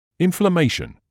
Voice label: "inflammation", English